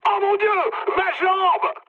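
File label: damaged pilot, mood high, French; War Thunder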